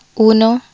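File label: Spanish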